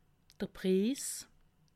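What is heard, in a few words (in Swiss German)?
"priss", Alsatian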